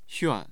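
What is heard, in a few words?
"xuan4", Mandarin Chinese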